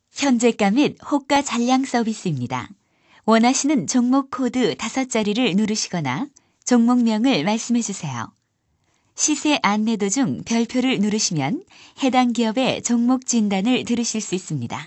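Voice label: Korean, female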